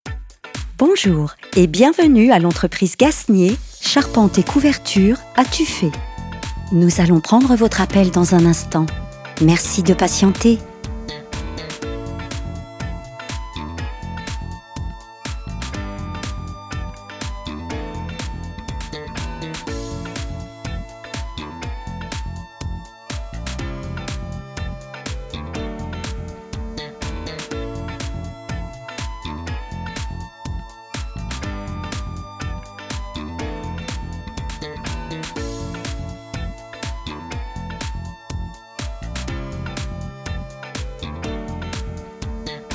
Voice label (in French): voix off message accueil telephonique femme; 07 - Voix Femme